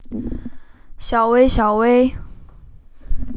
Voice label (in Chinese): normal, 中文唤醒词命令词; 中文唤醒词命令词音频数据集